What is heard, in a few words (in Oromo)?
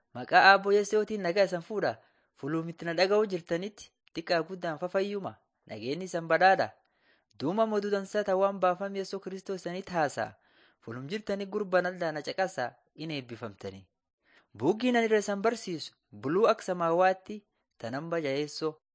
borana sakuye; laali chaqasi jiradin 6 yeson barsisa; jesus teacher and healer